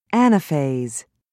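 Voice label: "anaphase", British English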